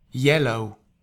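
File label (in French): "jaune", French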